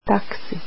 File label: taxi, croatian